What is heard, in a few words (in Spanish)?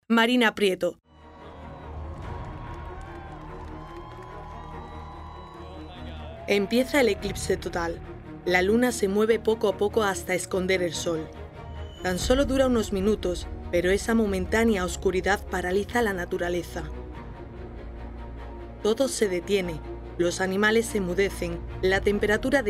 Documental, voces